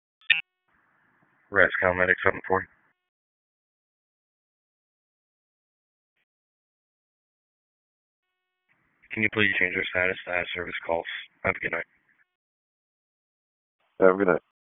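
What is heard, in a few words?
EMS DISP, radio; wake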